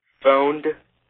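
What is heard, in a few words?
"phoned", American English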